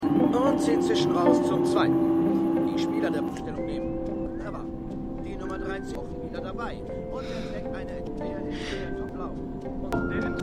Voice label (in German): Radiosprecher; Hello Tomorrow!